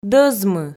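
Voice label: "дӧзмы", Komi, female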